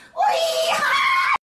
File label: Excited; Changbin